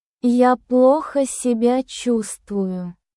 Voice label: Russian, Russian phrases for everyday conversation